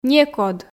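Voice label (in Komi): "некод", Komi, female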